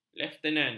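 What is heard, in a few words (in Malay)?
"leftenan", Malay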